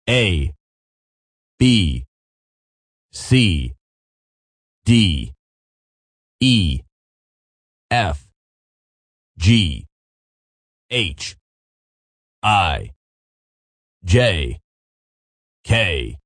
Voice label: Alphabet DJ, Male Voice, DJ, alphabet, A-Zed, speaking; human voice